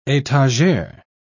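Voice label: "etagere", British English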